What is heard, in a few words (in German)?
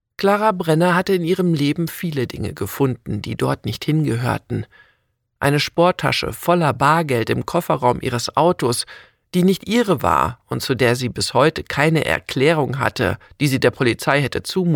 Hoerprobe, sprecher